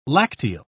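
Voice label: "lacteal", British English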